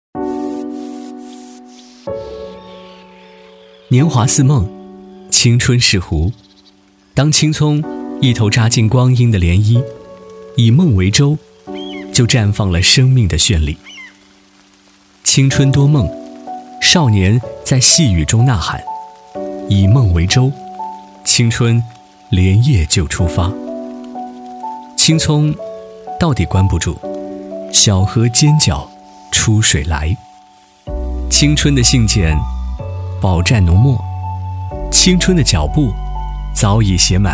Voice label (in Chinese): 男,旁白独白 深情询问; 青春是湖